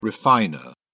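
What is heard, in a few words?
"refiner", English, uk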